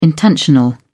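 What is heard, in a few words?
"intentional", British English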